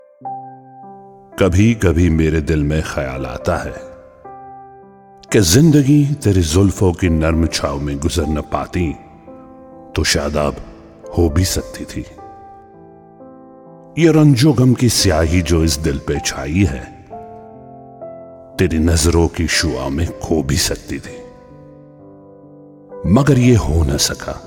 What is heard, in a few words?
poetic hindi